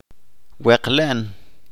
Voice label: "To decorate", Chalchiteko